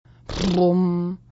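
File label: "Brumm", German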